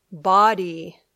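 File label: "body", English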